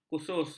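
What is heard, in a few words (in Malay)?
"khusus", Malay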